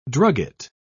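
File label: "drugget", British English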